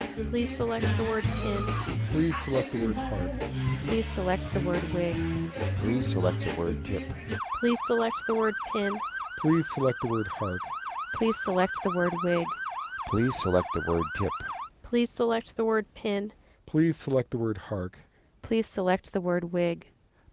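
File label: AMR wideband 23850 all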